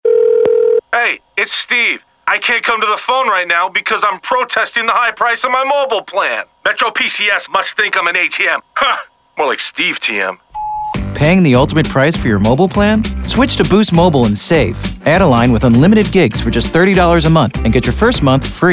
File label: English Radio; Boost Mobile